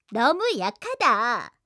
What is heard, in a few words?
ui yell